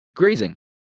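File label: "grazing", English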